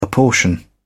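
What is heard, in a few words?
"apportion", British English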